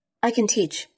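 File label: VoiceActing Spanish, female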